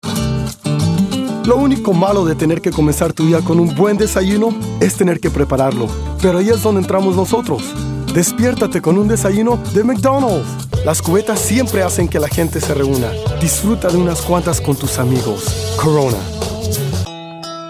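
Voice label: Spanish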